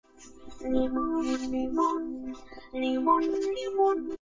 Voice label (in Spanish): limon limon autotune